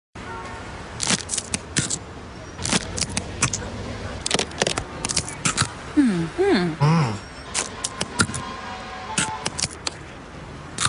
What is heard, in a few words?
COMMERCIAL; KIT KAT